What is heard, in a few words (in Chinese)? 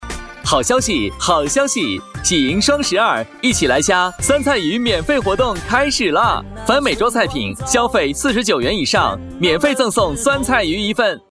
广告 男; 酸菜鱼